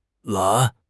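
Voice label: "lan2", Chinese; HSK; HSK3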